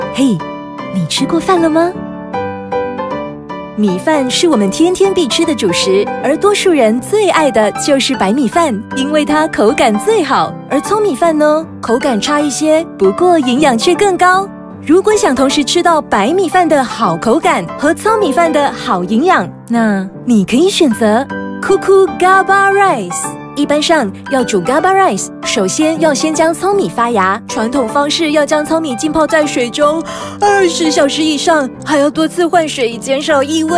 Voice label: educational video VO; Cuckoo